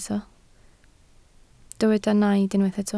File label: "naid", Welsh